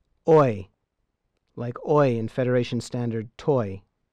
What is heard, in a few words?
Klingon sounds oy, Sound oy, as in toy